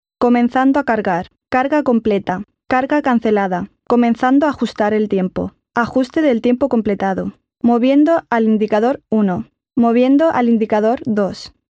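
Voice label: Spanish EU, female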